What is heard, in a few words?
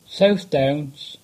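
"South Downs", English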